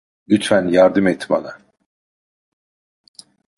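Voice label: "lutfen yardim et bana", Turkish; mozilla voice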